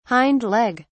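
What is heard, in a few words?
"hindleg", British English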